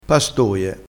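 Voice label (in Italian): "pastoie", dialettale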